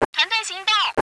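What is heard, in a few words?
stick together, radio, Chinese woman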